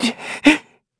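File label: Vox Sad, korean; Evan; kingsraid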